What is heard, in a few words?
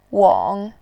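"wang", Mandarin Chinese, tone 3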